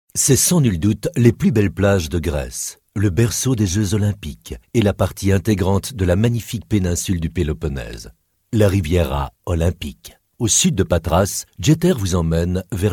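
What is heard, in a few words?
FR M corporate, French, male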